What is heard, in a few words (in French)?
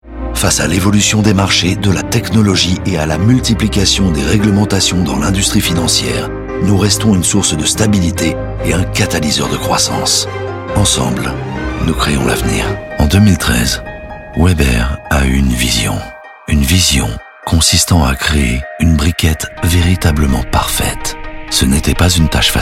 institutionnelle